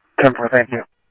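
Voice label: Scottsdale Police Department PD2 Scottsdale Police, scanner audio; H-Scottsdale; SCTSDLE PD - PD2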